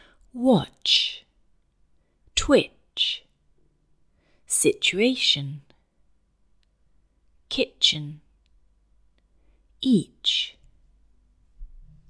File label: Arabic; Most Common English Pronunciation Errors made by Arabic Speakers